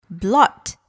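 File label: "blot", English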